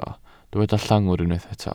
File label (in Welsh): "llongwr", Welsh